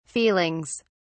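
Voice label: "feelings", British English, dictionary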